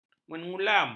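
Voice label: "menyulam", Malay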